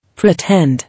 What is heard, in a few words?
"Pretend", English